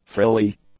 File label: "frilly", English; adjectives